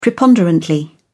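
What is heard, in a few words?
"preponderantly", British English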